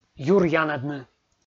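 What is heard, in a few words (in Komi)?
"юр янӧдны" Komi, male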